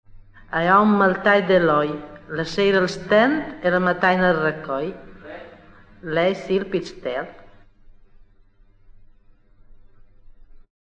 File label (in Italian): indovinello, romagna